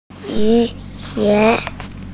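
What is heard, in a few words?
"rain boots", Mandarin Chinese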